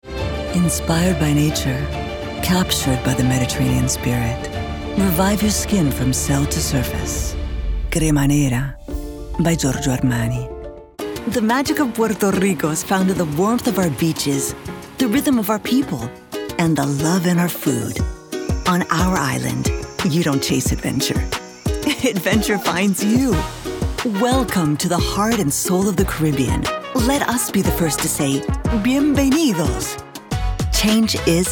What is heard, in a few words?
Commercial English; Shockingly Good. American Precision